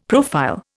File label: "profile", Indian; Dictionary